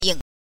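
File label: "ying", Mandarin Chinese syllable, neutral tone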